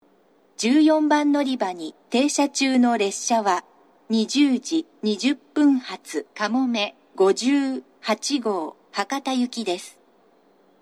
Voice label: 長崎 西九州新幹線ホーム 自動放送, 14番のりば停車中放送; かもめ58号 博多行き）※武雄温泉でみどり58号に接続

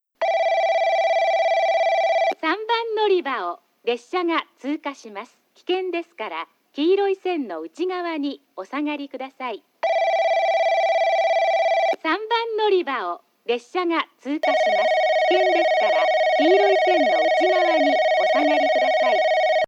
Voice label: 3番のりば通過放送; 福工大前

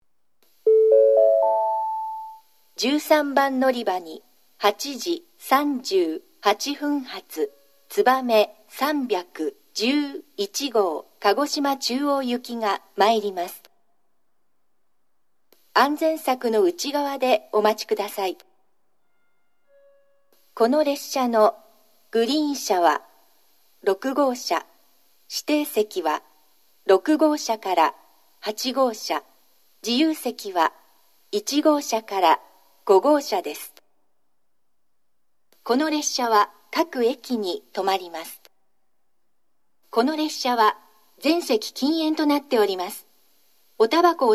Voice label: 新水俣, 13番のりば接近予告放送; つばめ311号 鹿児島中央行き; shin minamata

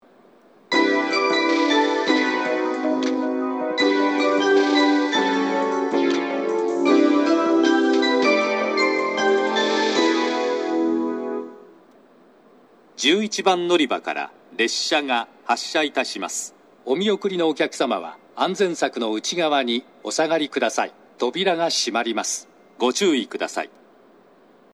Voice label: 11番のりば発車メロディ＋発車予告放送; shin omura

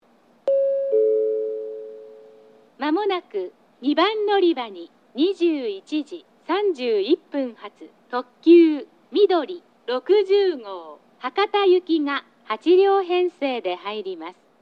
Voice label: 2番のりば接近予告放送; 2番のりば接近予告放送（みどり60号 博多行き）; takeoonsen

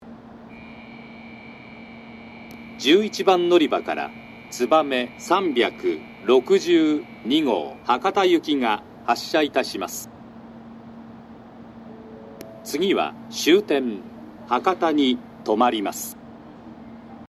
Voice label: 11番のりば発車放送; 11番のりば発車放送（つばめ362号 博多行き）; 新鳥栖

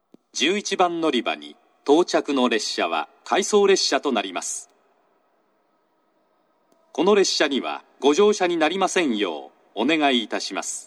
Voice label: 11番のりば接近放送（当駅止まり→回送列車）; shin omura

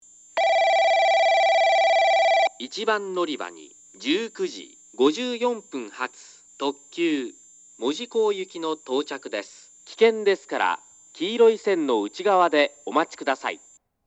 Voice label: 1番のりば接近放送（きらめき 門司港行き）; 福間

